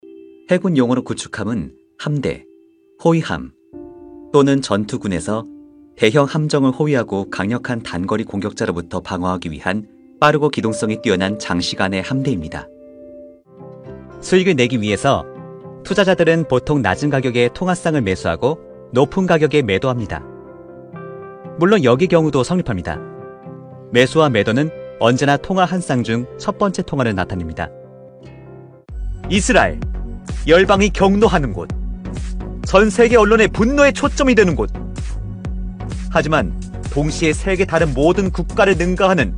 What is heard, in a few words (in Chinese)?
韩语男 外语 小语种 多风格合集